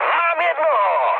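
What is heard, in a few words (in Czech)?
Czech player killed enemy, mood high; speech; War Thunder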